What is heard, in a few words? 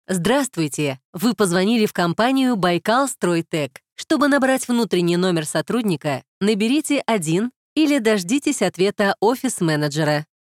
ivr